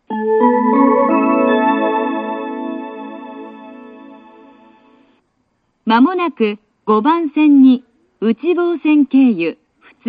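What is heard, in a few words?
platform 3 approaching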